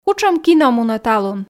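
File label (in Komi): кутшӧм кино мунӧ талун, Komi, female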